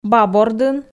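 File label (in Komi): "баб ордын", female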